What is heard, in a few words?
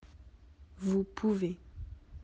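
"pouvoir", French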